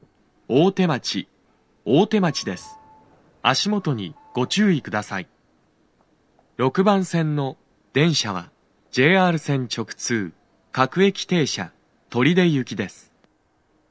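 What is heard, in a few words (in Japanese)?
6番線 到着放送 男声, 大手町駅; 放送は「各駅停車 取手行き」です。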